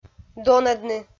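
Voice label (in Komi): "донӧдны", Komi, female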